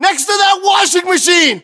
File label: landmark near washing machine, battlechatter us